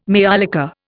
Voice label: "maiolica", Indian

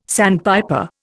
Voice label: "sandpiper", Indian

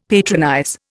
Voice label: "patronize", Indian; Dictionary

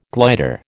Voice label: "glider", American

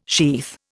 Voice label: "sheath", Indian

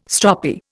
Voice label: "stroppy", Indian

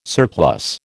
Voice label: "surplus", American

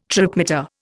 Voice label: "tripmeter", Indian

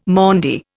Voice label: "Maundy", Indian

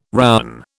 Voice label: "rowan", British

